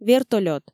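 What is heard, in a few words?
"вертолёт", Komi, female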